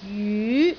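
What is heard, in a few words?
"jyu2", Cantonese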